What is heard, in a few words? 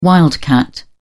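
"wildcat", British English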